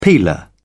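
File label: "peeler", English, British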